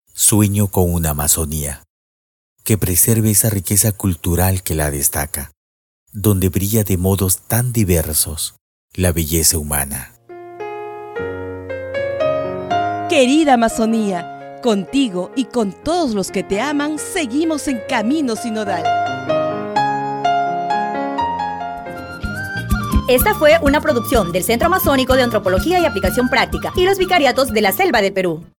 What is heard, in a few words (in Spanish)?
SPOT